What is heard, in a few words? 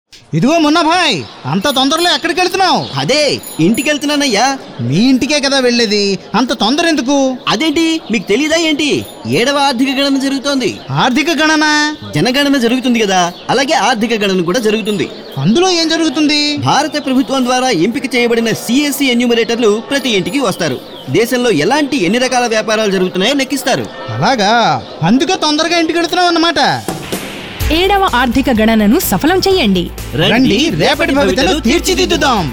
Telugu Radio Spot; economic census; seventh economic census